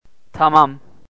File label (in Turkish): "tamam", turkish, greetings